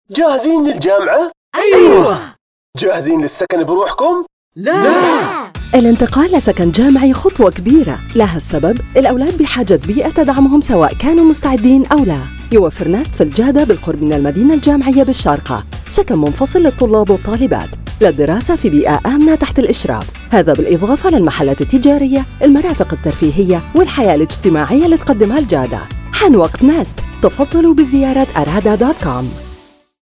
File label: RADIO ARABIC; NEST